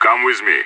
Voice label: radio follow me, player vo; idf